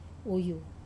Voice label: "wuyu", Wamesa